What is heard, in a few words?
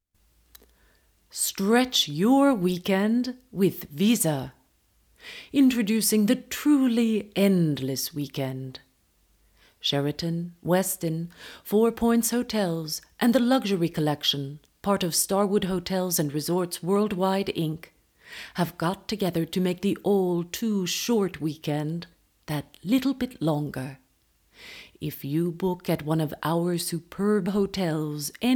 commercial; visa